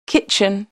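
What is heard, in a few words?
"kitchen", English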